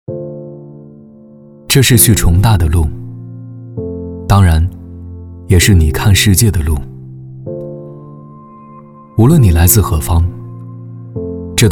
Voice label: 男 旁白 朝气 招生宣传片; 重大

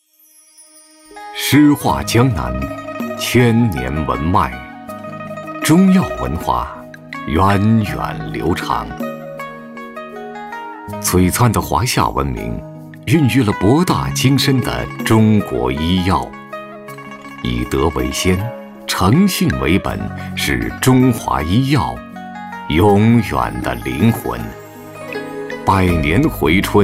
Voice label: 男 宣传片 中医药

